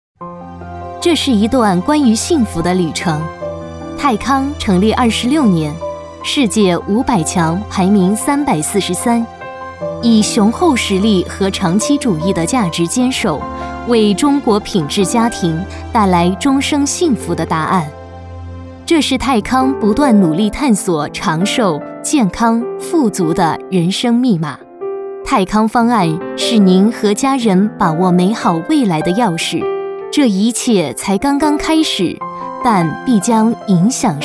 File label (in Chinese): 女 解说 正式; 泰康大健康中心